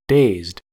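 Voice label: "dazed", US English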